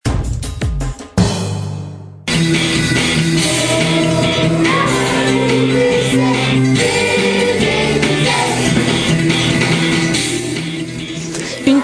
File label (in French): radio; DV2Z; Chalette